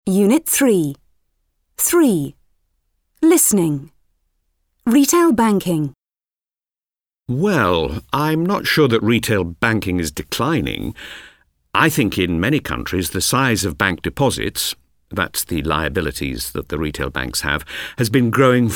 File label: English e-learning; VÚB